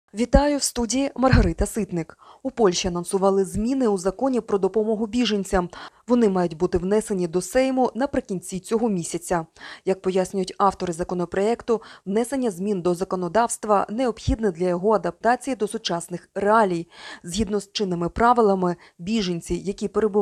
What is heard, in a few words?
Ukrainian News